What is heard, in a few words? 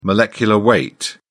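"molecular weight", British English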